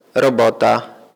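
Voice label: robota, Polish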